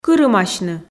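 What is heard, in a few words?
"кырымасьны", Komi, female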